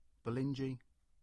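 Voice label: "Bolingey" English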